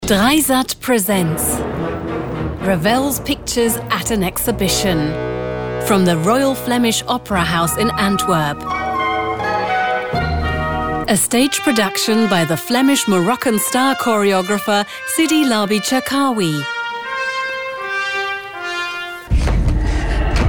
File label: EN Awardfilm; 3sat